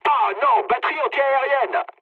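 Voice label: French damaged aa mood low; War Thunder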